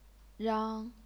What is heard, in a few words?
"rang1", chinese pinyin